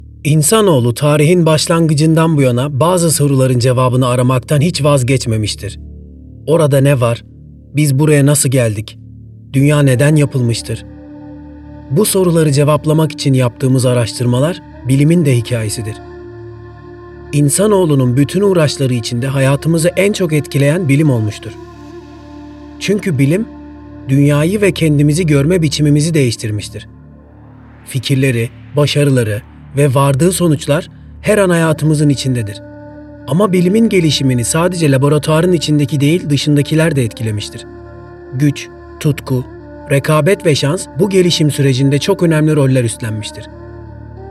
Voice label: Turkish M Narration; voice-over